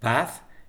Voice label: "paz", es